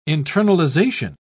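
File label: "internalisation", British English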